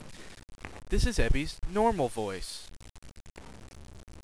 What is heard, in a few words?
normal voice